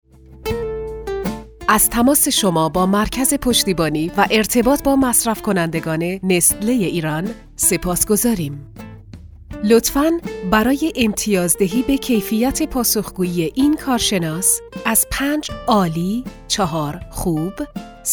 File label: narrator